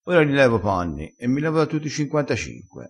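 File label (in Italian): "levassi da tutti i", dialettale crespinese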